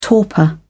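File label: "torpor", English, British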